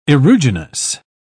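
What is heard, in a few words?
"aeruginous", English, uk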